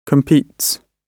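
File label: "competes", British English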